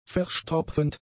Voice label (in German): "verstopfend", German; adjectives